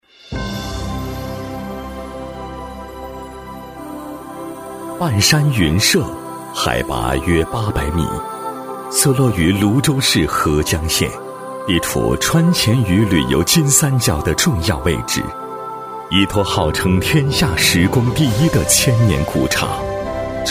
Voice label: 男 大气韵味; 厚重磁性 高端大气; 半山云舍

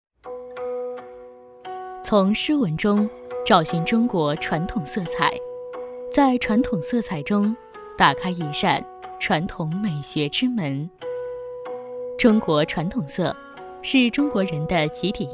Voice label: 女 课件 中国传统 舒缓庄重; 温柔知性

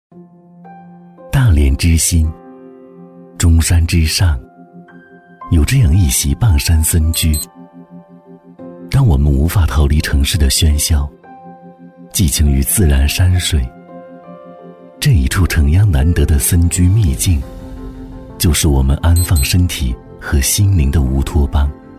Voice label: 男 房地产宣传片 唯美抒情; 大连之芯; 质感磁性

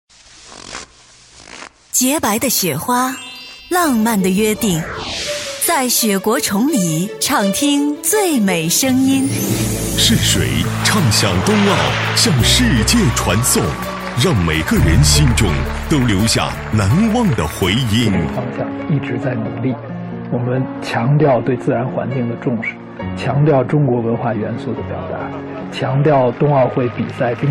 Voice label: 男 电台, 年轻浑厚 质感磁性; 崇礼综合广播频宣

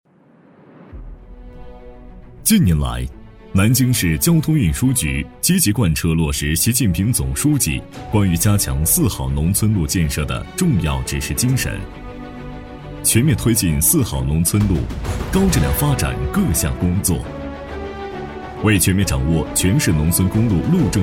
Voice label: 男 工作汇报 大气成稳; 南京农村公路; 厚重有力 大气浑厚

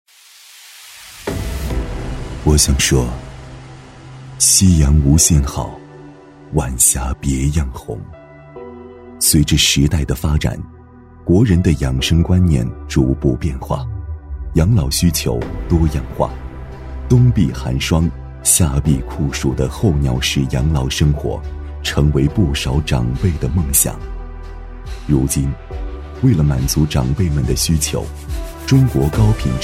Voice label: 男 宣传片 磁性走心; 低沉磁性; 质感磁性; 三亚泰康之家度假酒店

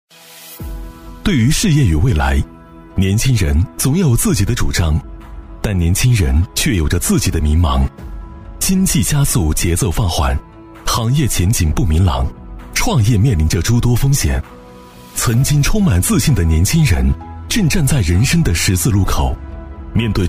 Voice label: 男 磁性旁白 积极向上 磁性; 优+; 磁性质感

